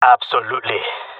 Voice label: agree, jungle male; player vo; Counter Strike Model Pack; CS:GO

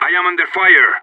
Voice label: radio taking fire; Counter Strike; CS:GO; separatist

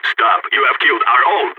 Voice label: killed friend, balkan

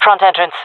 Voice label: loc front, jungle fem; vo

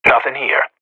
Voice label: radio bot clear; Counter Strike Model Pack; CS:GO

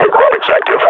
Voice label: announce enemy zombie, combine grunt

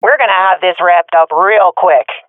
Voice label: round winning, swat fem; vo; Counter Strike Model Pack; CS:GO

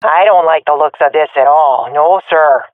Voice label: scared, swat fem; vo; Counter Strike Model Pack; CS:GO

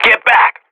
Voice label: radio bot fallback; vo; Counter Strike Model Pack; CS:GO

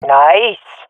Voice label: sees enemy killed, swat fem; Counter Strike Model Pack; CS:GO

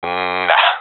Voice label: negative, professional; Counter Strike Model Pack; CS:GO

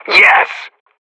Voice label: radio bot cheer; Counter Strike Model Pack; CS:GO

